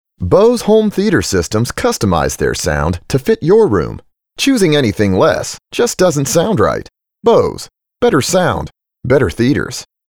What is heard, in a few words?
male, English American